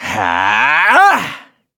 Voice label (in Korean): Vox Casting, kr; heroes; Kibera; kings raid